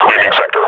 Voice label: firing, combine grunt; Half Life Model Pack

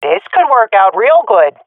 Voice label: round start, swat fem; Counter Strike Model Pack; CS:GO

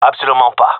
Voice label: disagree, gendermerie male

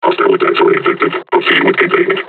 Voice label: taunt, combine charger; Half Life Alyx

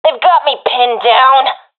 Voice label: pinned, professional fem; vo; Counter Strike Model Pack; CS:GO

